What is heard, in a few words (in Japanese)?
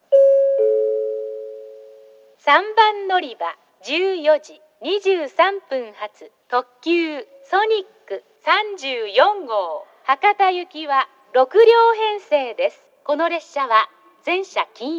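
3番のりば案内放送, 女声; 行橋; ソニック34号 博多行き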